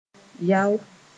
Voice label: "yao", moore

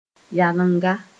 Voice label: "yalenga", Moore

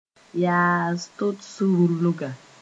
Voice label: "yaas-tots rulga", Moore

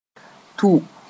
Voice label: "tʋ", moore; go to

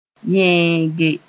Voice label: "yẽege", Mooré

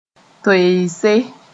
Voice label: "toeese", moore

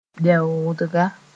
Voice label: "bɛoodga", Mooré; punctuation mark

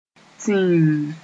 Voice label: "tĩmm", Moore; very black

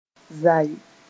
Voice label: "zae", Moore

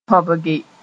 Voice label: "tõbge", Mooré; pinch

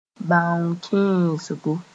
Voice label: "bãong kẽesgo", Mooré

